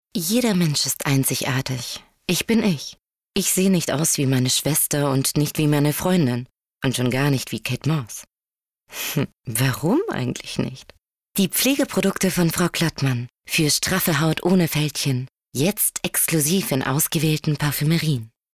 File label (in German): pflegeprodukte sprachprobe; stratmann